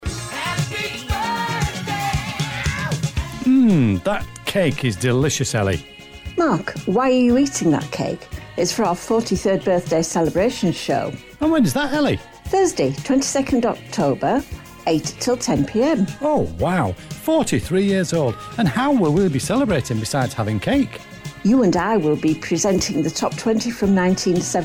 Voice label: Station Promotion; Harrogate Hospital Radio